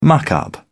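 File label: "muck up", British English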